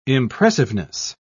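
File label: "impressiveness", British English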